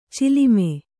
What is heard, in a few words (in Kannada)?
"ಚಲುಮೆ", kannada